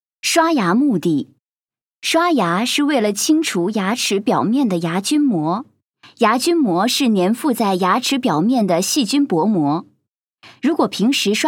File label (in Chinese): 國語女聲 教學